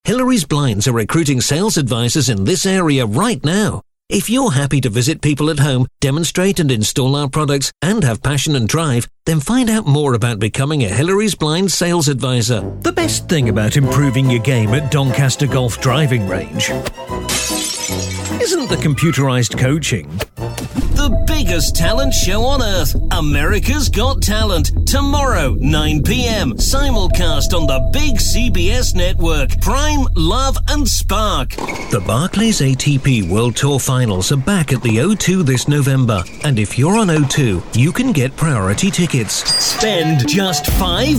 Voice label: Commercials Straight